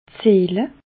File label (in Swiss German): "zehle", alsace